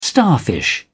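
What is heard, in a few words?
"starfish", British English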